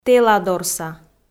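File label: "тэладорса", Komi, female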